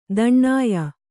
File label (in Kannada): "ತಾಣ್ಯ", kannada